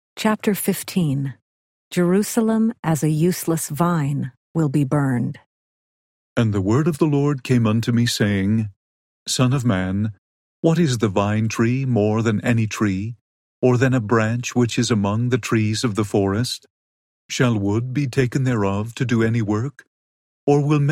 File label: ezekiel male voice; the old testament